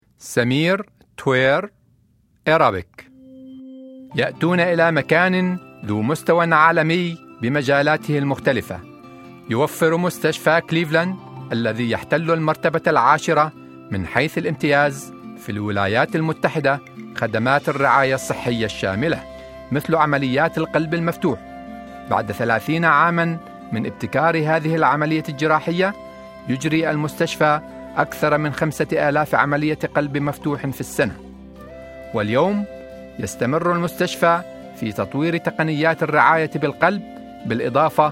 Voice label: Arab